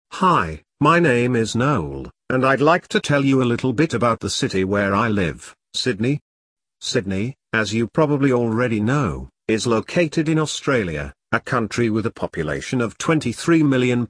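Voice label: British; sydney australia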